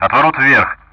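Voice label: Speech Sound Russian; DCS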